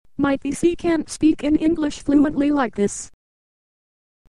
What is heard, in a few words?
english female, synthesizer